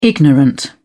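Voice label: "ignorant", English, British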